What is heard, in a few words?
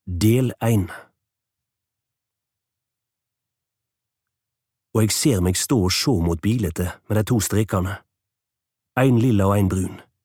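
Norwegian audiobook male Nynorsk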